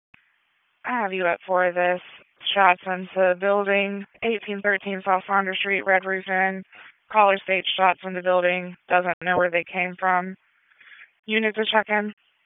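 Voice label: Police Southeast District Dispatch; Raleigh; wake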